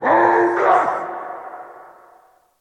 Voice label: orc